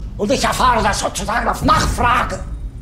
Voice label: chat